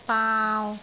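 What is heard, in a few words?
"baau3", Cantonese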